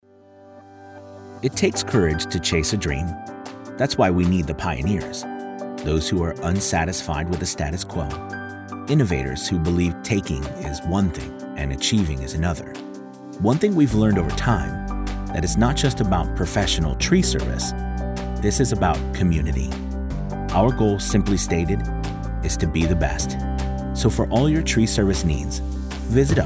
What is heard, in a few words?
Conversational Approachable Friendly Sincere b2b